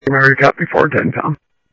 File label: Central Sheriff Central, scanner audio trunk